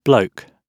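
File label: "bloke", British English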